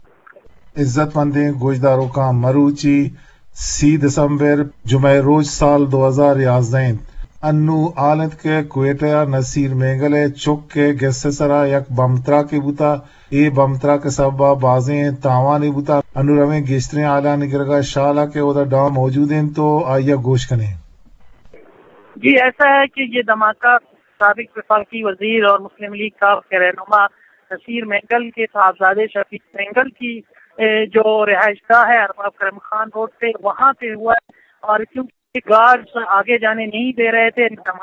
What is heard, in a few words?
Bomb blast news quetta